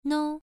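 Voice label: "nou", tone 1, pronunciation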